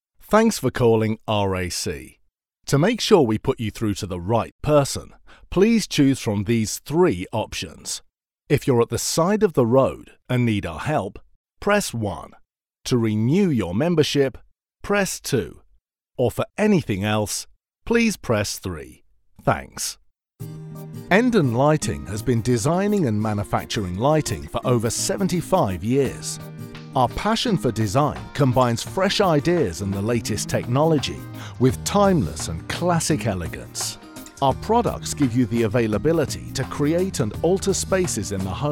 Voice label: On Hold IVR